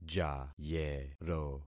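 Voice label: "jayero", concatenated syllables; Affixing experiment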